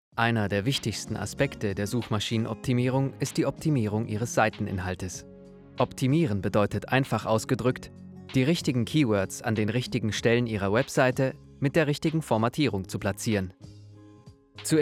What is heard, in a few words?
E-Learn; SEO